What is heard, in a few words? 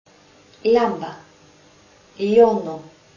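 "Lamda", Greek alphabet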